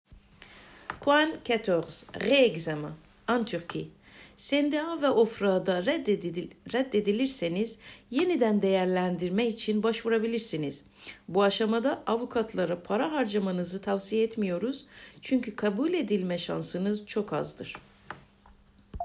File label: turc; re examen